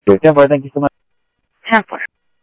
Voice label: Sheriff, scanner audio